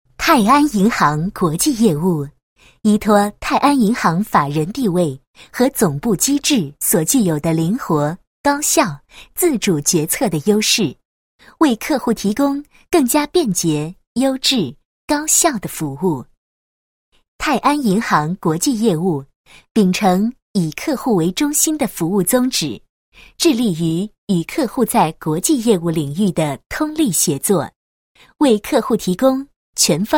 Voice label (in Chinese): 女 产品介绍; 泰安银行国际业务宣传介绍